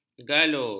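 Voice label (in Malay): "galur", Malay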